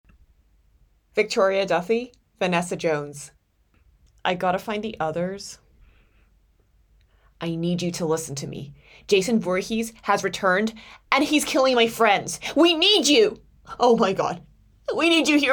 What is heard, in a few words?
Female